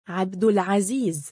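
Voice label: male "3abdul3aziiz", Arabic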